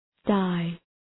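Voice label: "die", English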